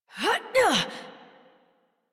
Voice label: Horse Mount Adult; Warframe; Duviri

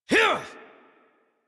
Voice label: Horse Sprint Adult; Warframe; Duviri

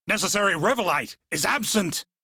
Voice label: Crew Need Revolite, Male; Dialog; en; Warframe; Railjack Crew; Arbiters Hexis

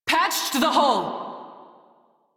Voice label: Crew Fix Breach, Female; Dialog; en; Warframe; Railjack Crew; New Loka

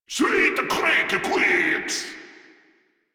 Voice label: Reload, Grineer Male; Warframe

